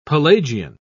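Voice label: "pelagian", English, British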